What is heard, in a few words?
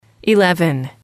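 "eleven", english, female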